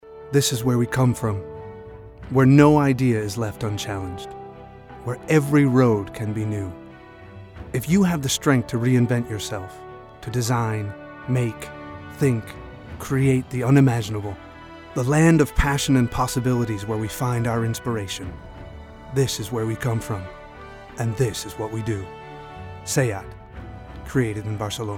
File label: male american english car comercial